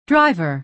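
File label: "driver", American English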